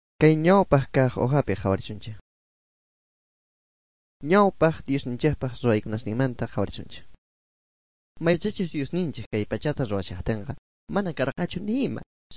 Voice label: good news quechua bolivian sucre; bible

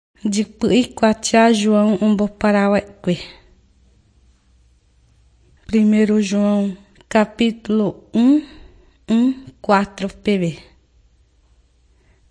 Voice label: john guarani mbya, bible

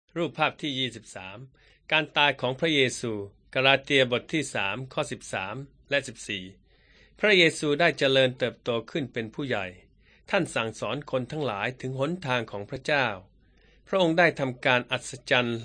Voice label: beginning with god thai central

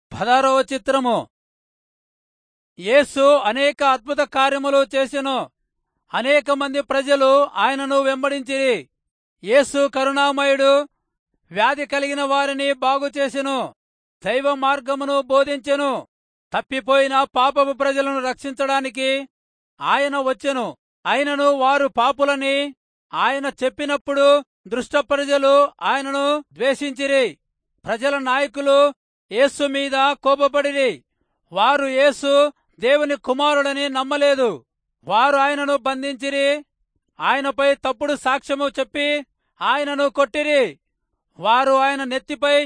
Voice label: telugu rayalseema; good news

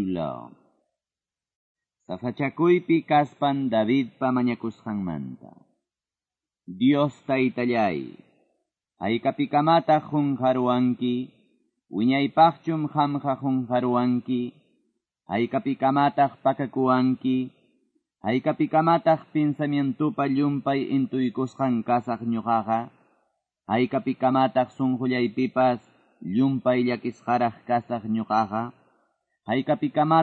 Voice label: salmos, quechua ayacucho

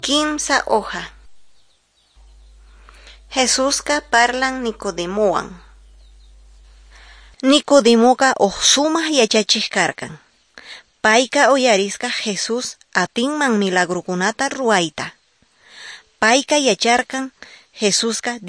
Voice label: quechua norte de bolivia apolo

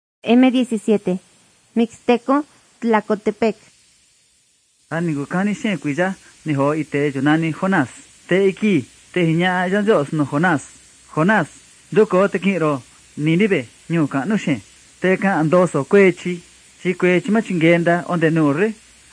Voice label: mixtec diagnostic spanish mexico

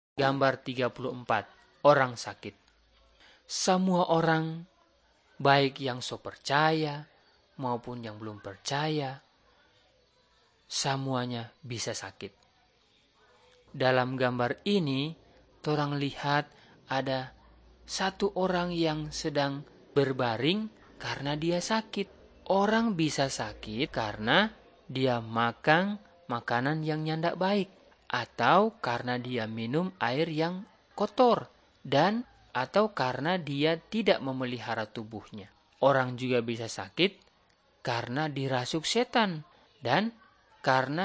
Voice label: malay manado; good news

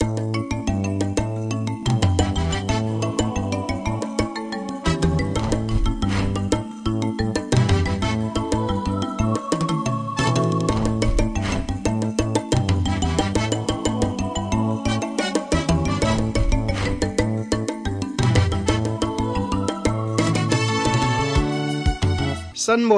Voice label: zulu bible; good news

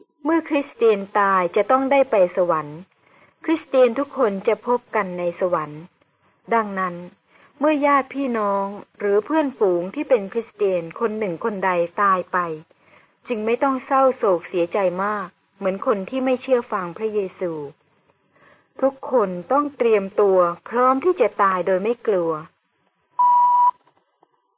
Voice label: good news short thai central

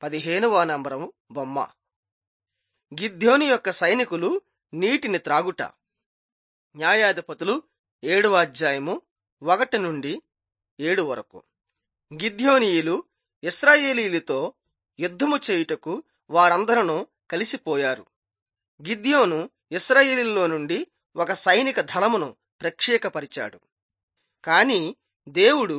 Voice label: telugu bangladesh

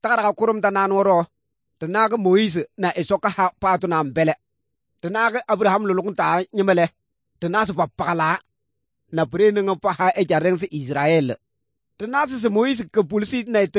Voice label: good news, kabiye bible

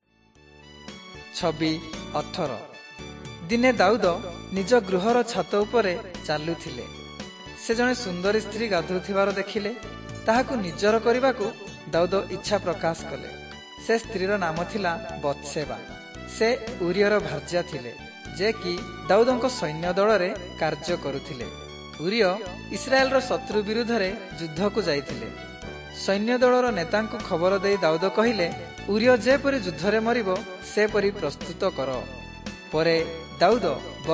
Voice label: southern oriya; servants of god

